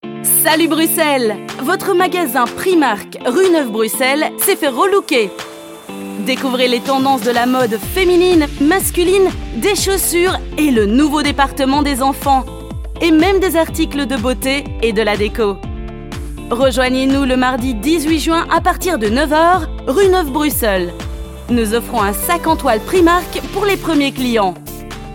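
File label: Belgian French Commercial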